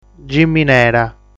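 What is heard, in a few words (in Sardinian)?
"Giminera", Sardinian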